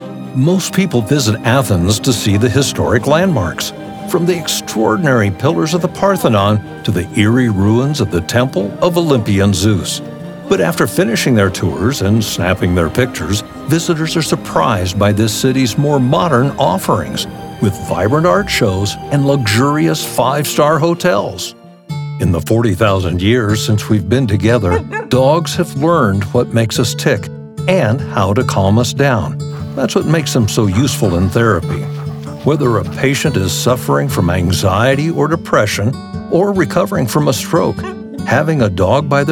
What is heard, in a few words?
Narration